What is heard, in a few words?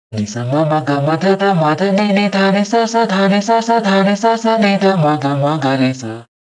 pitch shift voice, izotope radius -2